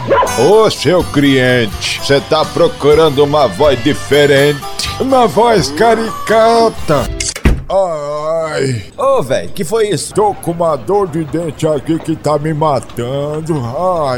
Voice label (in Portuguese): vox caipira; studio turbox